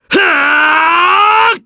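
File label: Vox Casting, Japanese; kingsraid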